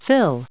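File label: "fill", English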